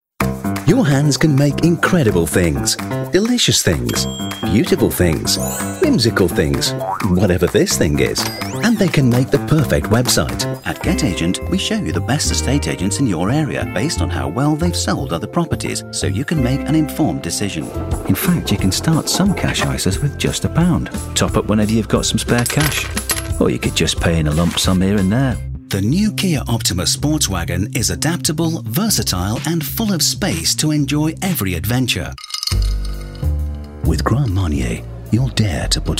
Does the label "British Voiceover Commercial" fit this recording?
Yes